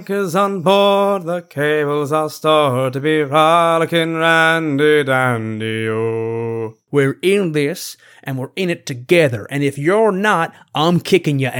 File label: VO Reel, Male